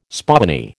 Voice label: "spoony", American

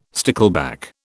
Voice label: "stickleback", British

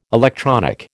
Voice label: "electronic", American

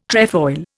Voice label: "trefoil", Indian

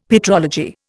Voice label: "petrology", Indian; Dictionary

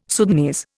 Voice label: "Sudanese", Indian

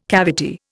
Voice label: "cavity", Indian

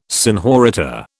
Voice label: "senhorita", British

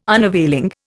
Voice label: "unavailing", Indian

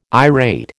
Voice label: "irate", American

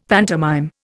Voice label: "pantomime", Indian; Dictionary

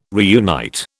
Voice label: "reunite", British

